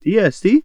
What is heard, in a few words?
civ male yes, greek